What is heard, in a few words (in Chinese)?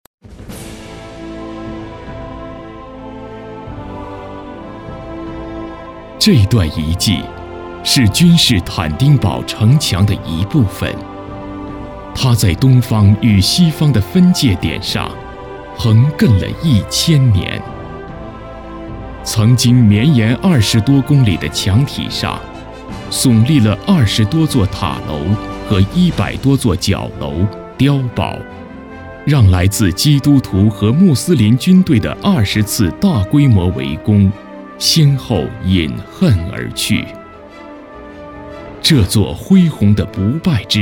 英男,纪录片; 土耳其